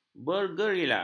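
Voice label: "bergerila", Malay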